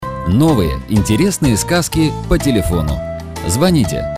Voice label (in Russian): golos reklama